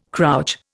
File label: "crouch", Indian